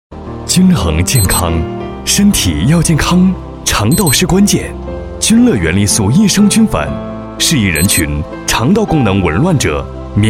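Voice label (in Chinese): 大气广告益生菌; 大气广告均乐原力素益生菌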